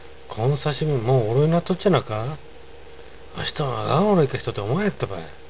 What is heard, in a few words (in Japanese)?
こん刺し身、もぉおろゆーなっとっちゃーなか？, 有田弁; あしとん、あがんおろいか人て思わんやったばい。; この刺身、もう腐れているんじゃない？; （物に対して）古い、腐敗している; （人に対して）汚い、狡い、悪気がある